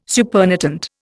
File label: "supernatant", Indian